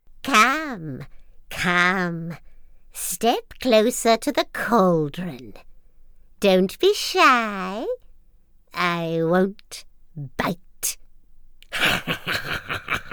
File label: witch